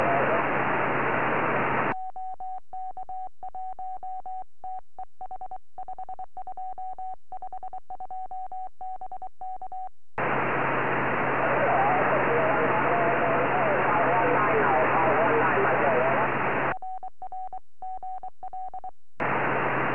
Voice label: 4m qso